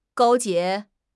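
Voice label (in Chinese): "勾结", Chinese; HSK6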